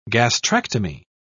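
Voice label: "gastrectomy", British English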